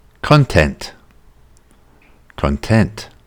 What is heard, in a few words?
"content", English; intermediate english pronunciation test